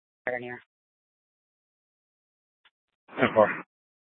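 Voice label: Scottsdale Police Department South Dispatch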